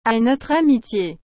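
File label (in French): "A notre amitié", French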